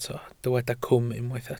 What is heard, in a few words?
"cwm", Welsh